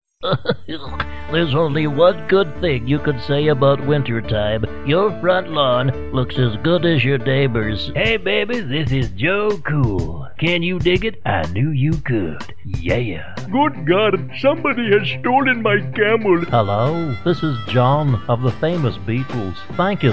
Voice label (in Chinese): 外籍男 电影多种角色英语男声 MUSIC